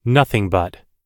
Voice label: nothing but, US English